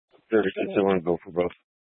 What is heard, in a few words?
Police Department Dispatch, scanner